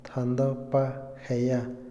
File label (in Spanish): "calendario", Otomí, hombre; Moderno; INALI